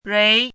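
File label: "rui2" Mandarin Chinese, rising tone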